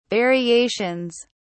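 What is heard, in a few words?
"variations", British English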